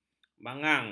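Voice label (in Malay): "magang", Malay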